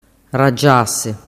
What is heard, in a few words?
"ragiase", dialettu